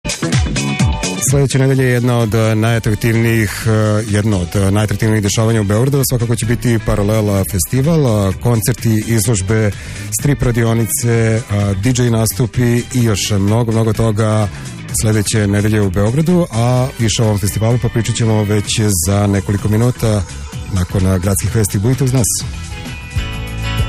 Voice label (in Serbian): Radio najava; Radio Laguna